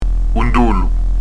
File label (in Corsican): "un dolu", Corse